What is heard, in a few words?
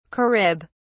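"carib", British English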